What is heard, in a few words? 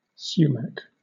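"sumac", British English; pronunciations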